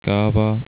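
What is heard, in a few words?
"kava", Slovak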